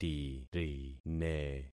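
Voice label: "tirine", concatenated syllables; Affixing experiment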